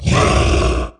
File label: boss hurt